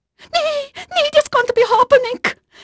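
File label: Dutch female, voice; Pirates Of The Caribbean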